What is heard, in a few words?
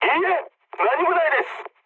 jp chat, speech jp